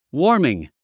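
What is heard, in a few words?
"warming", English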